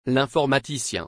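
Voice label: "L'informaticien", French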